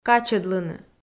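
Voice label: "качӧдлыны", Komi, female; kpv audio dict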